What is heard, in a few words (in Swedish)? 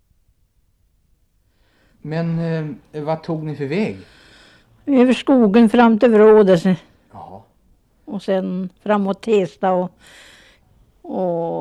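Skogs-Tibble Uppland, Svenska sagor, dialekter; Folkminnen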